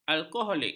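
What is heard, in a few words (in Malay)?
"alkoholik", Malay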